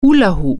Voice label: "hula hoop", german